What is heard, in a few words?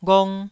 "ghong1", Taiwanese syllable